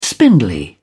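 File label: "spindly", British English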